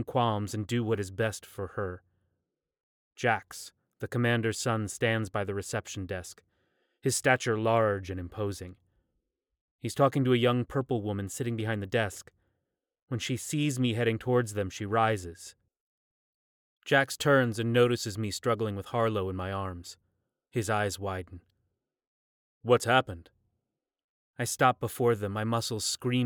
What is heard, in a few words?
YA Fantasy 1st Person